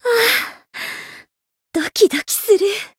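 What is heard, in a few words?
encounter fear, Japanese; pom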